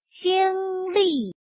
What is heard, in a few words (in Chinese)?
"经历", 汉语